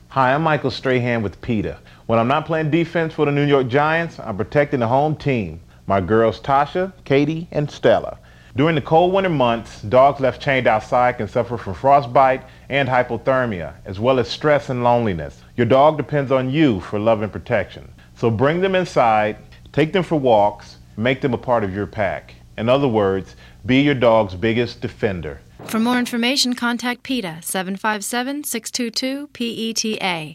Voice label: radio psa; michael strahan